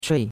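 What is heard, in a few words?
"chui4", Chinese; Cours-de-Chinois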